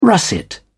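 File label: "russet", British English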